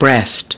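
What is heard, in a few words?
"breast", English word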